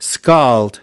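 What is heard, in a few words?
"scald" US English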